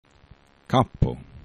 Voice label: "kapo", esperanto

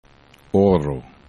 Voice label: "oro", esperanto

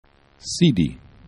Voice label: "sidi", esperanto

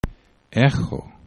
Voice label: "ehxo", esperanto

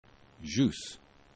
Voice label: "jxus", esperanto

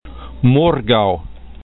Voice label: "morgaux", esperanto

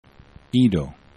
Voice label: "ido", esperanto

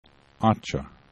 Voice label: "acxa", esperanto

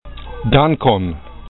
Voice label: "dankon", esperanto

